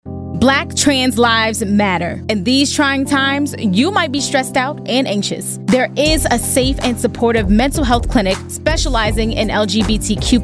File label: female